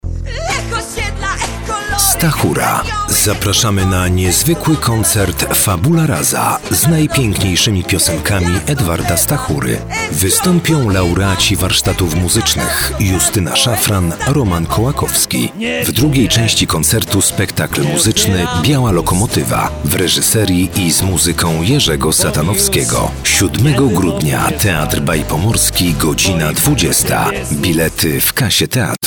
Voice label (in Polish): spot radiowy